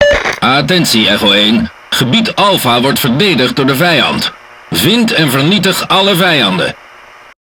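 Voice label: Speech Tutorial, Dutch; World Of Warships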